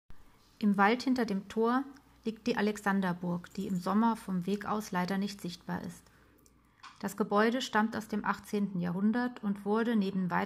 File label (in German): Hörspiel; Alexander